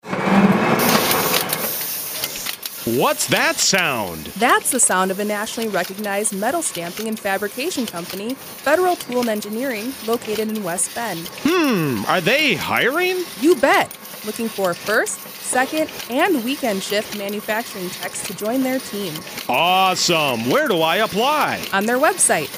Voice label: Employment Recruitement Spot; Federal Tool